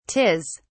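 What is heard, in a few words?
"'tis", British English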